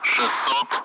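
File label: Speech, Russian, Numbers, 60; Allied Flight; DCS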